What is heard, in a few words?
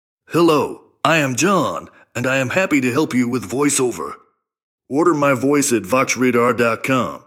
USA